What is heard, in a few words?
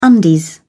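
"undies", British English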